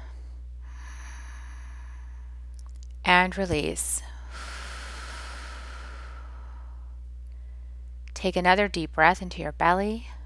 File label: Releasing Disempowering Roles Meditation